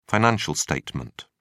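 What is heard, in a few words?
"financial statement", British English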